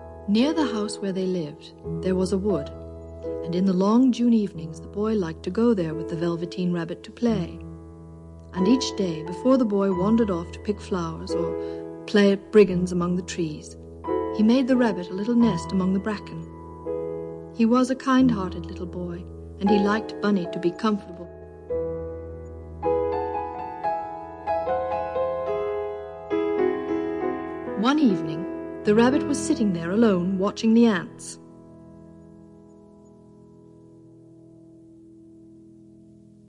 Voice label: Summer (Narration)